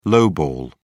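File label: "lowball", British English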